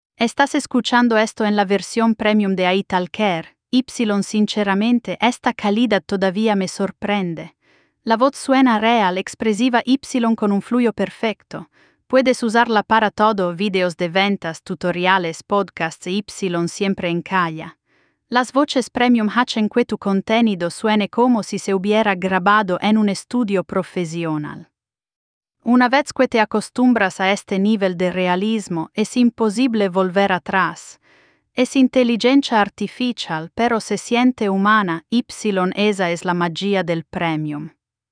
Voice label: spanish